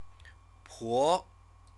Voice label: "po", Chinese; CTCFL; University of Oxford

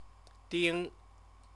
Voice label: "ding", Chinese; (surname); proper noun

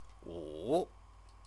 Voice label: "wu", Chinese

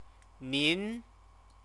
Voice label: "nin", Chinese

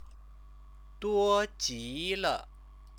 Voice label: "duojile", Chinese; 多 极 了; a lot; adverb

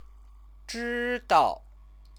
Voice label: "zhidao", Chinese

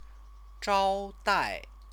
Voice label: "zhaodai", Chinese; Chinese lessons

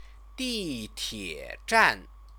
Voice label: "ditiezhan", Chinese; Chinese lessons

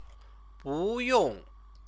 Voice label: "buyong", Chinese

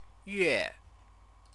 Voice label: "yue", Chinese

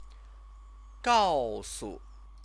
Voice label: "gaosu", Chinese; 告 诉; tell; verb; Chinese lessons

